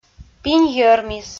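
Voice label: "пинь йӧрмис", Komi, female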